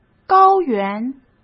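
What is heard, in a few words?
"高原", Mandarin Chinese; hsk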